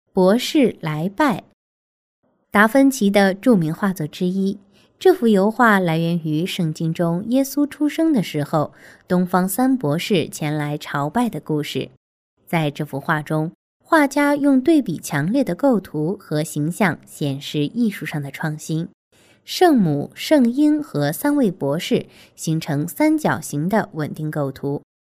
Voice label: Cince Kadin Mandarin Cin Simplified, seslendirme; pekin

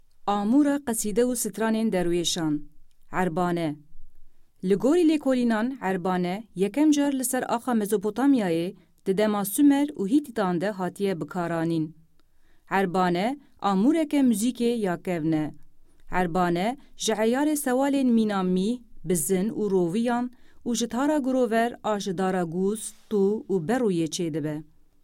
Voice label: Kurtce Kadin Kurmanci, seslendirme; kadin sesler

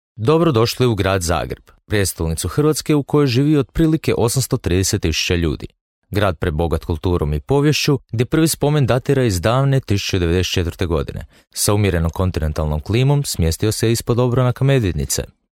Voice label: Hirvatca Erkek; seslendirme

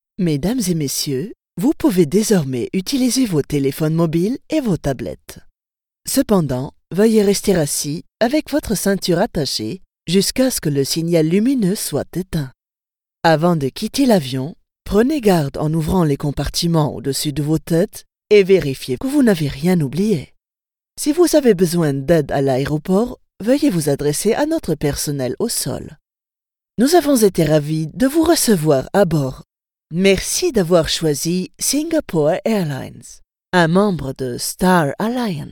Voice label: Fransizca Kadin; seslendirme

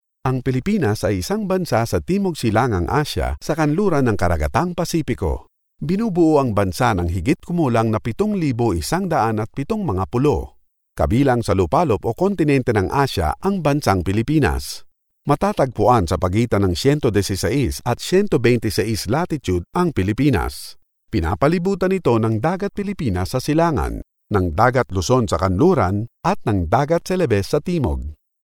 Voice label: Filipince Erkek Tagalog; seslendirme

